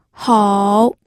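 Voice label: "hou", Mandarin Chinese, third tone; pinyin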